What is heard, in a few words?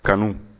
"kanu", Bambara